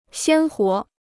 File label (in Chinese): "鲜活", Chinese